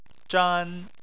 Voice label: "zhan1", Chinese; Chinese Surnames